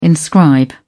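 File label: "inscribe", British English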